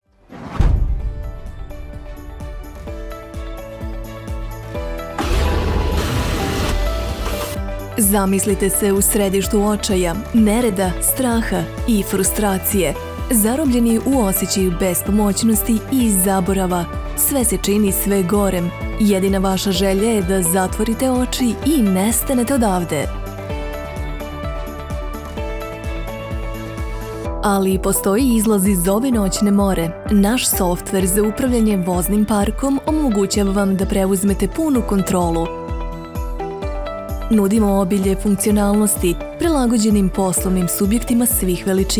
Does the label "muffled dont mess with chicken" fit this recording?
No